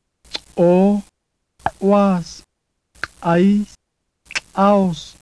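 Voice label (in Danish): nama, glottislukke, clicks; [kǀʔo] [kǂʔoas] [kǂʔais] [kǀǀʔaos]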